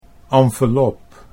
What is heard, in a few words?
"envelop", Dutch